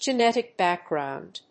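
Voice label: "genetic background", English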